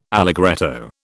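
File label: "allegretto", British English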